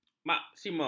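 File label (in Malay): "maksimum", Malay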